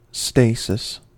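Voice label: "stasis", US English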